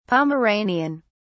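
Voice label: "pomeranian", British English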